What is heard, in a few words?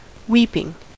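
"weeping" English, US; pronunciations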